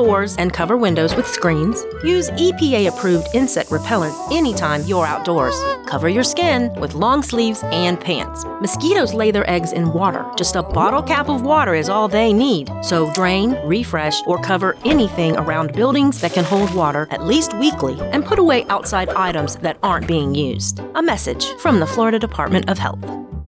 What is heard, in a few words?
Zika radio ad; mosquitoes zika